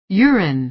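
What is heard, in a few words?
"urine", American English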